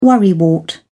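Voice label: "worrywart", British English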